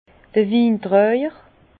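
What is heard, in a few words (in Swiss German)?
"wintraijer", Alsace Bas Rhin; vin; porteur de vins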